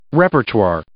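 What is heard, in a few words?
"repertoire", English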